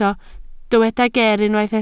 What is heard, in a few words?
"ger", Welsh